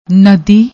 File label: "nadi", Punjabi; ਨਦੀ